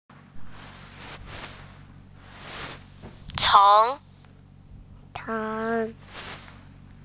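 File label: "ceng2", Mandarin Chinese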